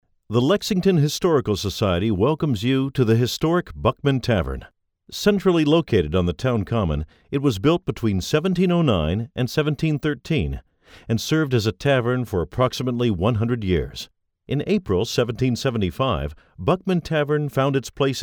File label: Natural Speak